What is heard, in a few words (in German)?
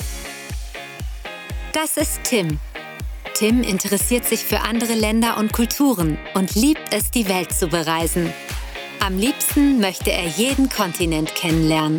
Erklaerfilm, sprecherin